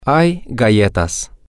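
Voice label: there are cookies, Spanish, male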